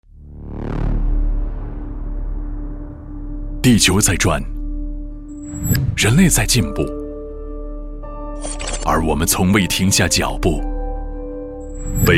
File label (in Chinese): 男 独白 有激情